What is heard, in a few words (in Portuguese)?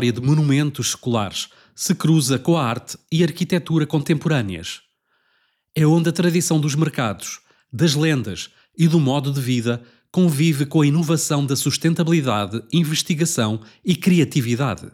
AUDIOGUIA PORTO BEM VINDO AO PORTO; itinerario quarteirao historico